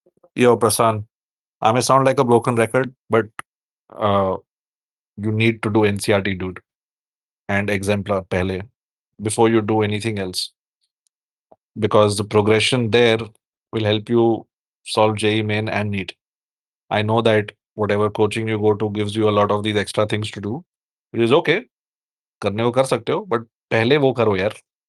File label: voice message